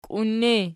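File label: "kiun" ergative, Archi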